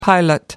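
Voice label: "pilot light", British English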